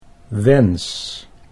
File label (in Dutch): "wens", Dutch